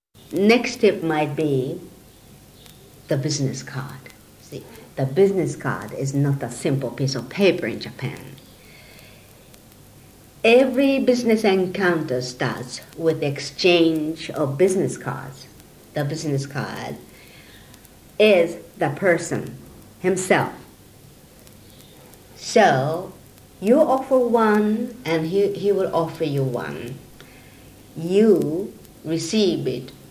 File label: conversation